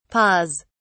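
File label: "paws", British English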